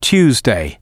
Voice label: tuesday, m